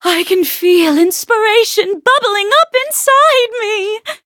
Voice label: levelup, en; Cookie Run